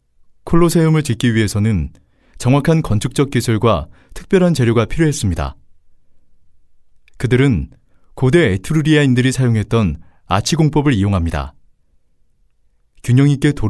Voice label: 일반인처럼 자연스러운 내레이션

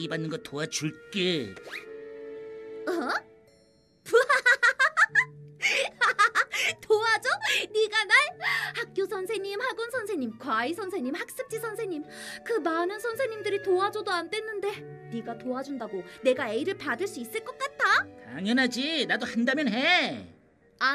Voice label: 극장판 밝고 순수; 스푸키즈

